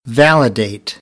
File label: "validate", American, English; ə - ɪ